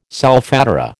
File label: "solfatara", American